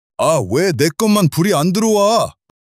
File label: anger